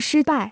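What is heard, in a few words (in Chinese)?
"失败" Chinese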